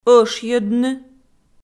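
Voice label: "ӧшйӧдны", Komi, female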